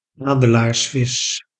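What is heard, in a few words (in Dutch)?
"adelaarsvis", Dutch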